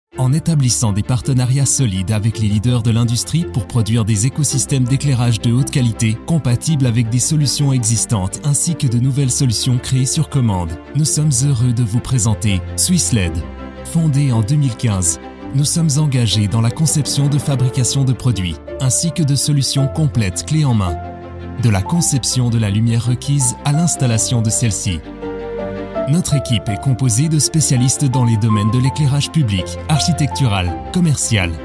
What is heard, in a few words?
french male corporate